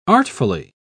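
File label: "artfully", English, British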